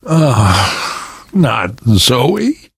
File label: grief teen girl, survivor voice; source engine; left 4 dead 2; namvet